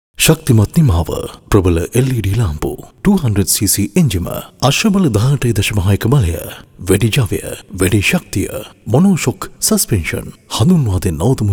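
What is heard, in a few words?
TVC Sinhala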